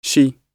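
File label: "she", British English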